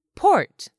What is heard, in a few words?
"port", English; 3000 words